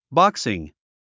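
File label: "boxing", English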